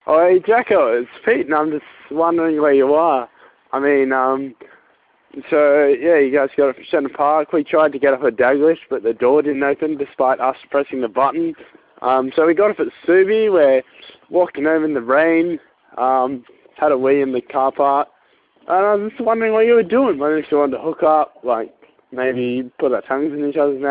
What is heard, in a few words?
phone message, Voicemail Messages